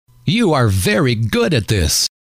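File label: you are very good at this, male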